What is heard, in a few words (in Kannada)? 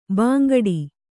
"ಬಾಂಗಡಿ", Kannada